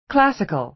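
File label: "classical", American English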